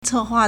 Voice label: "ce4hua4", Chinese